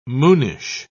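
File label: "moonish", British English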